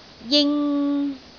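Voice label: "jing3", Cantonese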